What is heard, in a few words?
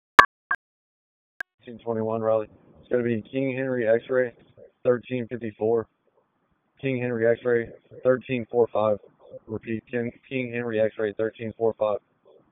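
Police Downtown District Dispatch, p25; Raleigh; wake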